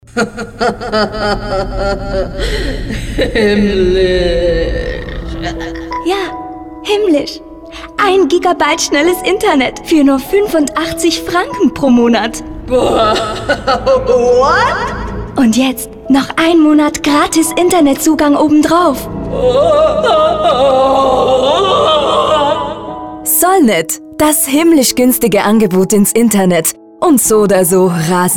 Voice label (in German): werbung gespielt